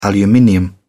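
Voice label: "aluminium", British English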